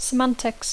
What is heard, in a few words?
"semantics", English; Dacco